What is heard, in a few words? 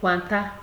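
"quata", Nigerian Pidgin, female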